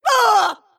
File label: pain; snapfire